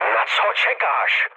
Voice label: attack position, speech cze, mood low; War Thunder